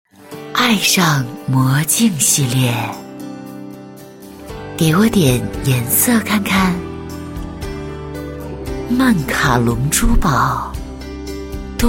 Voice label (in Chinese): 广告, 磁性女配; 曼卡龙珠宝; 国语女声配音